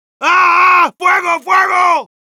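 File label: Heavy auto on fire, Spanish; "¡Agh, agh, fuego, fuego!"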